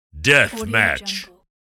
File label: announcer saying death match